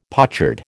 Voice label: "pochard", American